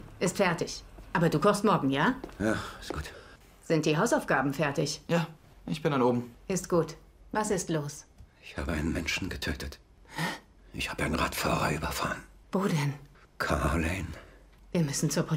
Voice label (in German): Synchron; mütterlich & erschüttert & wütend